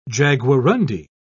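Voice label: "jaguarundi", British English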